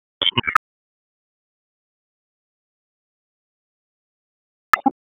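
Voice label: Raleigh Police North District Tactical; wake; p25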